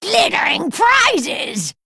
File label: Female Wyrmtongue Attack